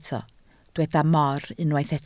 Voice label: "mor", Welsh

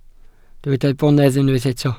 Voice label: "bonedd", welsh

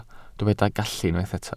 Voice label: "gallu", Welsh

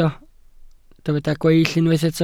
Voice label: "gweill", Welsh

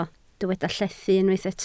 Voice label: "llethu", Welsh

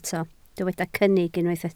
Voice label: "cynnig", Welsh

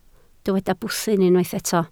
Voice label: "bwthyn", Welsh

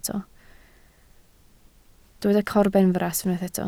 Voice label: "corbenfras", Welsh

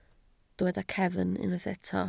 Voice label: "cefn", Welsh

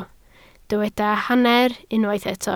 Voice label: "hanner", Welsh

